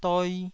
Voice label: "doi2", Taiwanese syllable